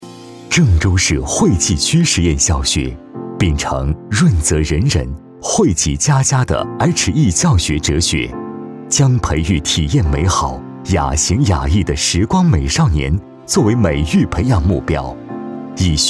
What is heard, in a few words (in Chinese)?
男 学校 清新 稳重; 惠济小学